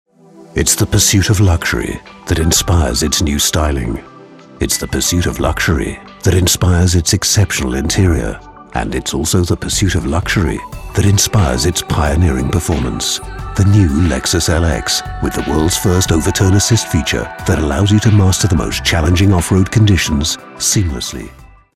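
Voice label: English British, male, commercial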